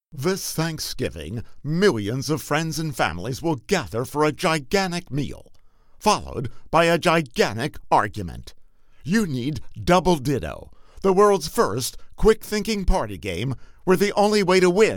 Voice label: Promo; Double Ditto Game

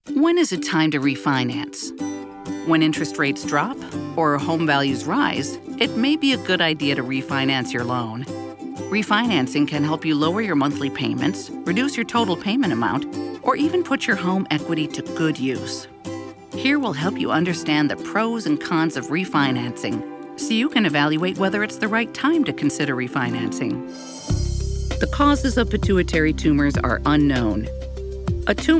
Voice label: Narration Industrial

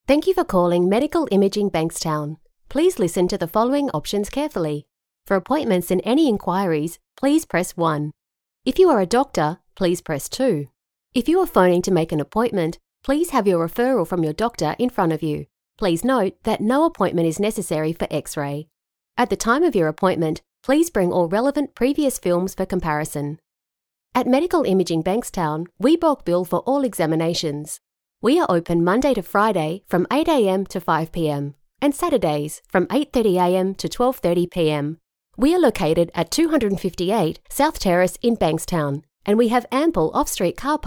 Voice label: IVR

